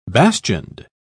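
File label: "bastioned", British English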